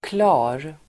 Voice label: "klar", Swedish